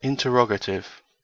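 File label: "interrogative", British English; pronunciations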